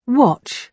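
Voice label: "watch", British English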